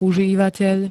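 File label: "užívateľ", Slovak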